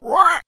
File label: what squeaky; ooh ahh